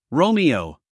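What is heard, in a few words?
"Romeo", American English guy; sounds-of-american-english; everyone-can-use-english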